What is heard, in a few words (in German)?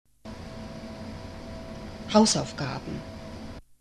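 "hausaufgaben", German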